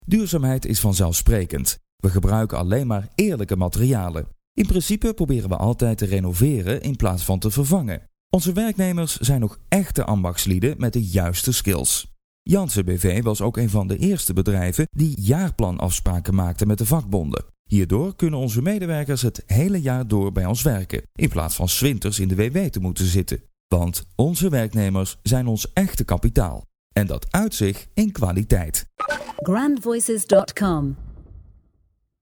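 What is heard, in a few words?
Brabants accent, male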